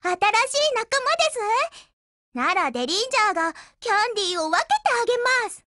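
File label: BUILD OVER Japanese, Voice; GF Alarm; Derringer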